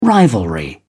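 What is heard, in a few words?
"rivalry", British English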